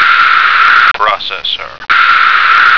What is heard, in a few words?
"processor", American